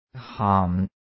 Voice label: "haam4", cantonese

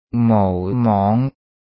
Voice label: "mou4mong5", cantonese

